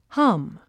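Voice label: "hum", English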